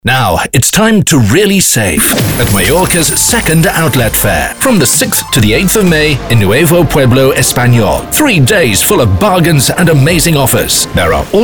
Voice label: Commercial